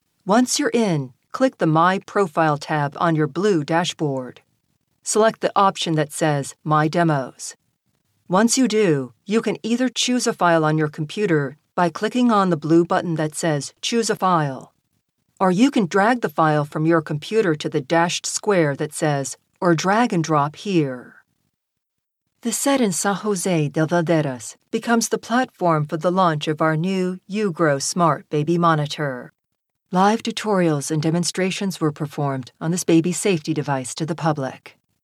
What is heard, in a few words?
e-learning corporate